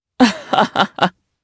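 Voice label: Vox Happy, Japanese; Lucias; kingsraid; jp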